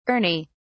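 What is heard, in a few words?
"ernie", British English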